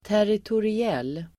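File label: "territoriell", Swedish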